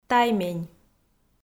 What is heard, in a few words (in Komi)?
"таймень", Komi